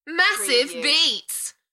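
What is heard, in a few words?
UK female, Enthusiastic, DJ vocals; Massive beats; beanstalk audio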